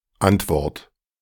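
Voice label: "Antwort", German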